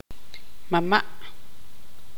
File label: tzutujil; abuelo-materno